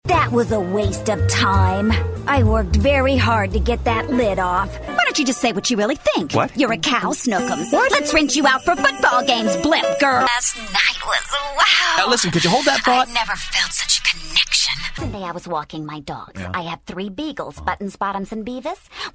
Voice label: Character, animation